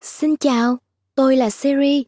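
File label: Vietnamese; iOS 26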